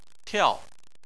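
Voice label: "tiao", Mandarin Chinese, fourth tone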